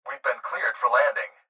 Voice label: Pilot Voice Landing